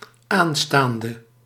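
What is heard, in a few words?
"áánstaande", Dutch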